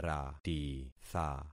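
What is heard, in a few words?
"ratitha", concatenated syllables; Affixing experiment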